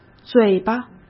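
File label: "嘴巴", Mandarin Chinese; hsk; chinese-flashcards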